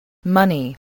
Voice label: "money", voice, spelling